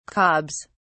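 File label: "cobs", British English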